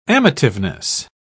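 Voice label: "amativeness", English, British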